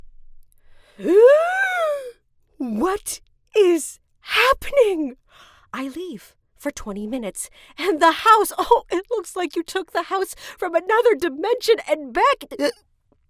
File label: Mom Parent Character Sample